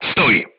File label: voice message short stop, crew tanks polish